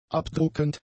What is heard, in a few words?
"abdruckend", German; adjectives